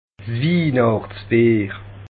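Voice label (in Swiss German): "wihnachtsbier", Alsatian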